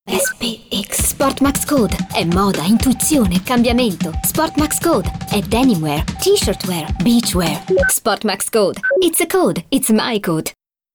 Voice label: ITALIAN; Sport max code

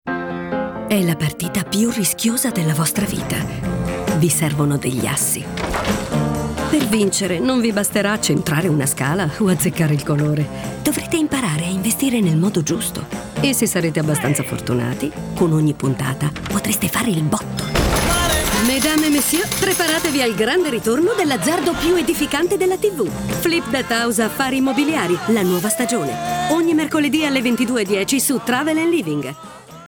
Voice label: TV Promo

